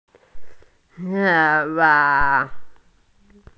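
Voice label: "qing1wa1", Mandarin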